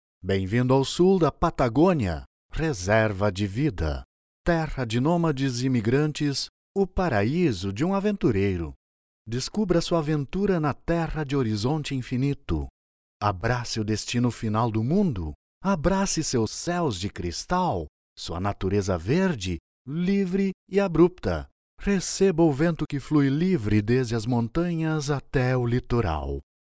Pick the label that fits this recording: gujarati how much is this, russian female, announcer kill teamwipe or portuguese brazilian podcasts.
portuguese brazilian podcasts